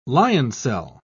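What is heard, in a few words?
"lioncel", British English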